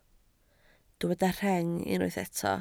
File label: "rheng", Welsh